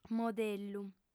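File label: "modellu" Sardinian, female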